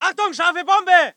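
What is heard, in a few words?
voice german infantry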